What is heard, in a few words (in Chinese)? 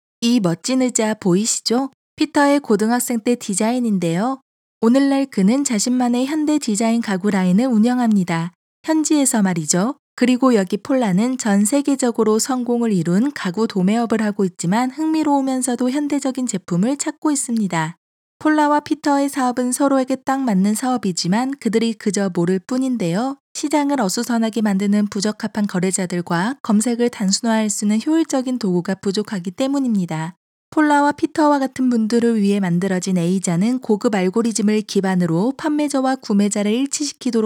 韩语女 干音 叙述